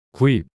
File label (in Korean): "구입" 단어 남자